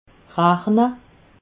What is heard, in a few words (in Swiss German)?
"rachne", alsace